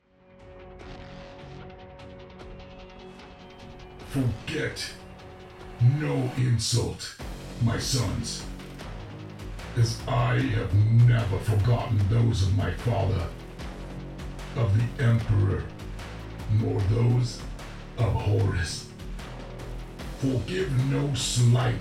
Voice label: Character Voice Rotting; CORRUPTED COMMANDER